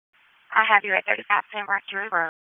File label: Police Southeast District Disp, p25; Raleigh; wake